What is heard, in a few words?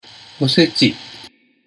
"osechi", Japanese